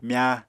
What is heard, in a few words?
"mya", Apatani